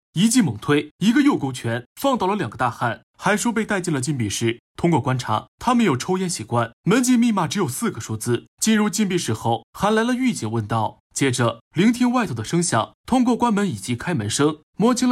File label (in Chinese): 电影解说动作